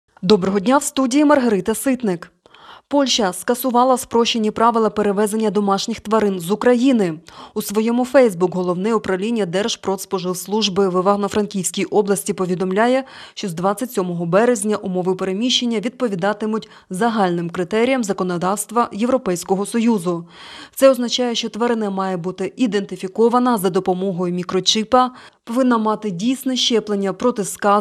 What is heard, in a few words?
Ukrainian News